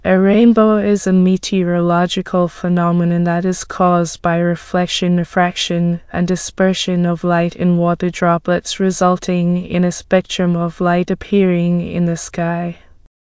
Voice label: speaker, en GB; piper voices; vctk